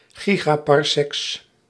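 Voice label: "gigaparsecs", Dutch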